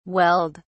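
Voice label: "welled", British English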